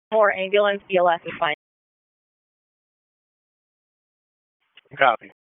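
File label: Public Safety Tactical, p25; wake p25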